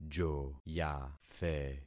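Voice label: "joyathe", concatenated syllables; Affixing experiment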